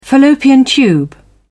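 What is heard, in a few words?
"fallopian tube", English, British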